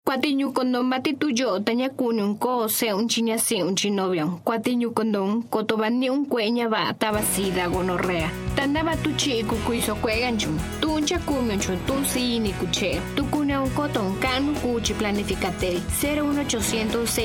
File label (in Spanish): Mixteco